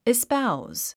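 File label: "espouse", English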